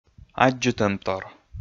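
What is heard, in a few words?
"аддзытӧмтор", Komi, male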